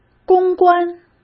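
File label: "攻关", Mandarin Chinese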